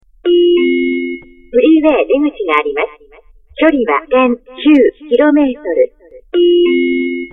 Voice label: female